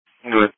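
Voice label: Emergency Medical Services Dispatch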